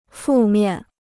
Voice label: "负面", Chinese

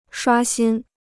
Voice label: "刷新", Chinese

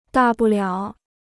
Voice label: "大不了", Chinese

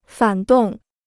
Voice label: "反动", Chinese

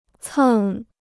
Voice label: "蹭", Chinese

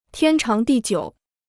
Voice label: "天长地久", Chinese

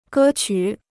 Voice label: "歌曲", Chinese

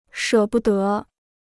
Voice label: "舍不得", Chinese

